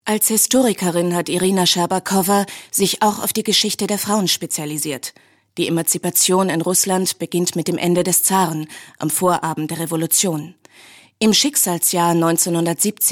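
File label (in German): kommentar, sprecher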